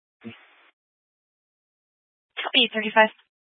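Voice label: Police Dispatch, scanner audio recording; Police 1 Dispatch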